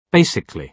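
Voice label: "basically", British English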